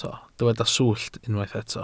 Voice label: "swllt", welsh